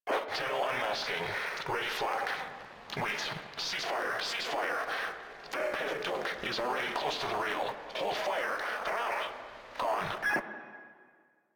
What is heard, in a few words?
Controller, Radio Interceptions; Customs; Grineer Controller